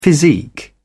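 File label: "physique", British English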